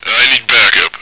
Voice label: backup, radio, male